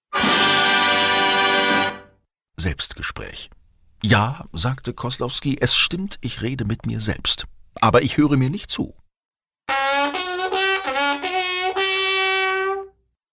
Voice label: Poetisch

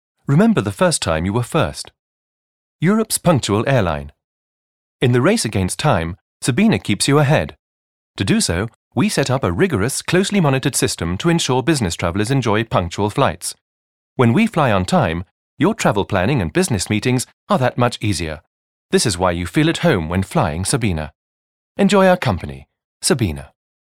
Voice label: Kommentar Neutral

